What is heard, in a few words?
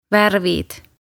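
"värvid", Estonian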